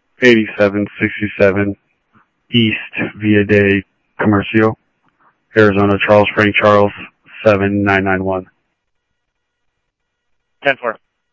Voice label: Police, scanner audio

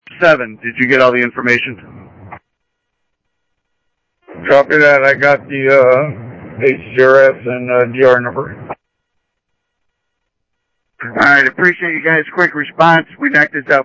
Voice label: scanner audio; Thompson Peak; ADOT AlrtOps; ArizonaDepofTran

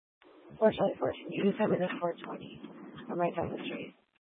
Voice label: Mesa PD Dispatch

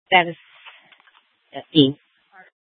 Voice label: Police Dispatch, scanner; Phoenix; 200 Black Mountain Precinct

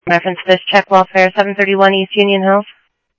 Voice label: PD, scanner audio trunk; PHOENIX PD-200 A Phoenix PD; ThompsonPeakHigh

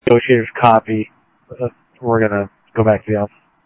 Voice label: Mesa Simulcast police; jumper

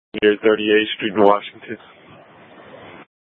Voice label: Public Transit System Light Rail Ops, scanner; Regional Wireless Cooperative (RWC) - South Mountain High; Valley Metro

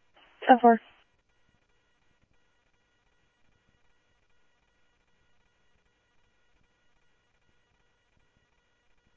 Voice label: Security, scanner audio

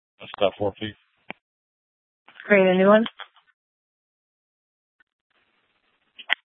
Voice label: Sheriff, scanner; Maricopa County (P25) - Thompson Peak; Shrf Lakes

